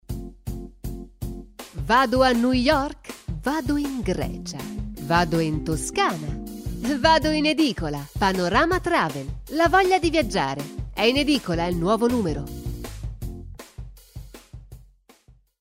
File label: Spot